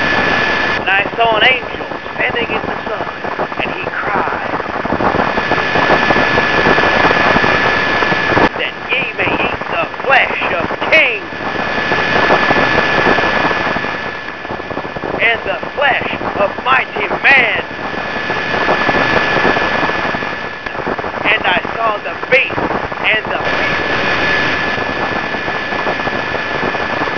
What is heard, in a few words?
priest radio; svencoop; hunger